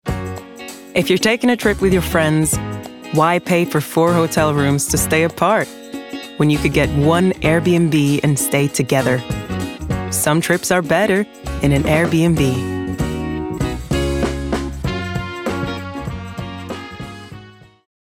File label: Norweigan, Clear, Smooth; airbnb; commerical